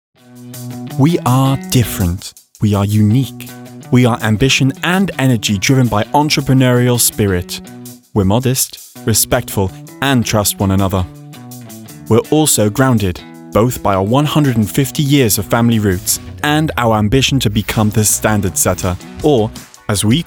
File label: english british promos